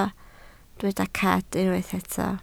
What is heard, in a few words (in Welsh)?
"cad", Welsh